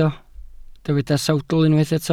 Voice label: "sawdl", Welsh